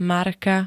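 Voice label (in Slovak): "marka", Slovak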